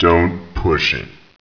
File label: annoyed